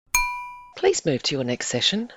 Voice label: short ding please move to your next session